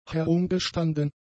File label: "herumgestanden", German; adjectives